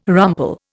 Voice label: "rumple", Indian